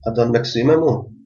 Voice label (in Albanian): dance, albanian gheg